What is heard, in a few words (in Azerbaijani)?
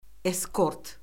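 "eskort", Azerbaijani; Orfoqrafiya Lüğəti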